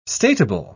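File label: "statable", British English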